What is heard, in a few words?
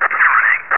Chatter